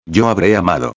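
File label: "habre amado", Spanish; modos tempos verbais; indicativo; futuro perfecto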